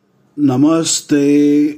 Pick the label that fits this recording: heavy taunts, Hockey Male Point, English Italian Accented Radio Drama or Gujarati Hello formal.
Gujarati Hello formal